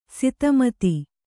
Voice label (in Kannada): "ಸಿತಮತಿ", Kannada